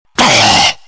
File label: lich pain